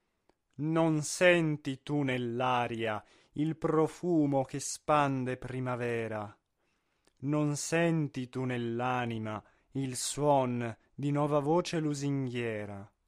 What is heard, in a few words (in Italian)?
espressivo; Aprile